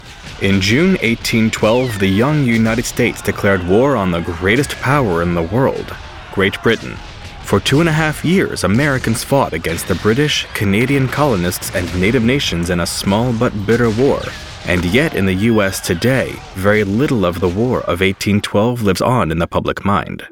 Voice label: male voiceover